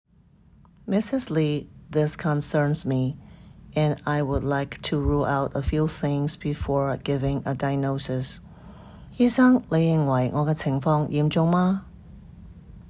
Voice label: Medical Certified Oral Cantonese Practice